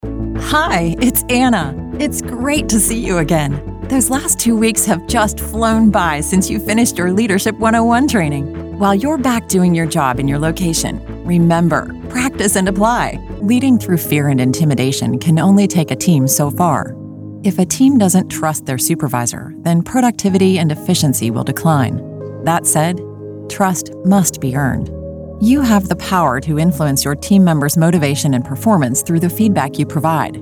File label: 30s40s Female eLearning